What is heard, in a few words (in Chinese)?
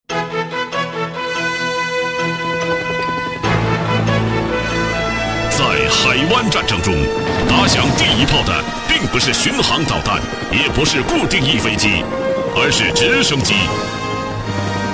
专题 汇报 力度; 解放军总参